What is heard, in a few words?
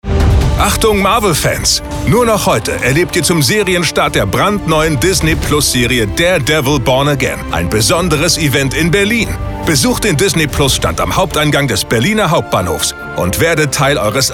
radio; disney marvel daredevil